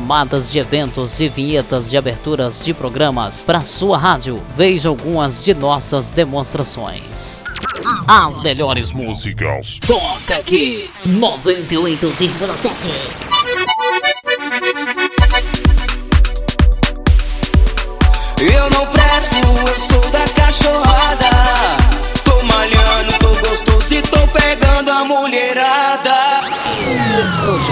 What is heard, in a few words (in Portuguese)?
locutor